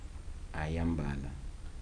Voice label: "october to december", Kibembe

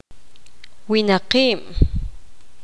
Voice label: poqomam

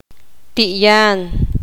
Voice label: poqomam; tigre

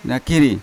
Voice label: "nakiri", Yawa; back; unat

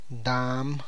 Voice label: "owl", tlacochahuaya

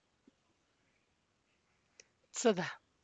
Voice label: fourteen, Santiago Matatlán Zapotec; (Spanish) catorce

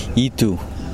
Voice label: "star", Kosraean

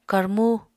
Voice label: "karmú", Apatani

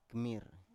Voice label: "kmir", Biak

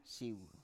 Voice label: "siu", Biak

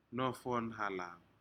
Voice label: "nofonhalav", Aneityum; shellfish

